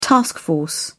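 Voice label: "task force", British English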